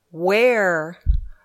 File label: "Where", English